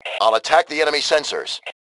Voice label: Self Attack Sensors, Male; T2 Male 04

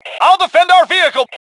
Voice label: Self Defend Vehicle, Male; T2 Male 01

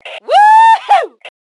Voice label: Woohoo, Female

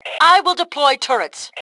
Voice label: Self Task Deploy Turrets, Female; T2 Female 02

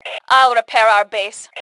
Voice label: Self Repair Base, Female; T2 Female 01

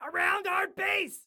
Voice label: Warn Enemy Around Our Base, Excited Guy

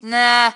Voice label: Team No, Female; T2 Female 03

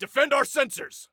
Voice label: Defend Sensors, Soldier Male

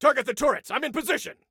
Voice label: Target Turret, Soldier Male; Soldier Male 02

